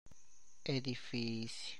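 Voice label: Tlacochahuaya Zapotec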